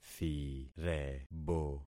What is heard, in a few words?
"thirebo", concat syllables; Affixing experiment